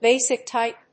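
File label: "Basic type", English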